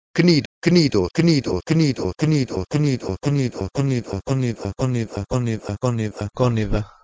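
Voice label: Proto-Indo-European kh3nid to Modern Greek koniDa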